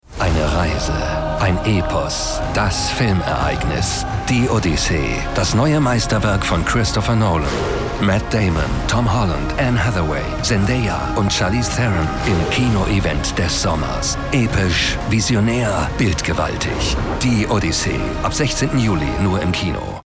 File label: OFF Trailer; Odyssee; the odyssey